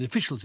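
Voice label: "the officials", Standard Southern British English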